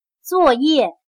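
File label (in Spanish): "作业", chino mandarin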